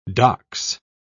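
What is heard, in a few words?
"docs", British English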